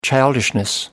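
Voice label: "childishness", British English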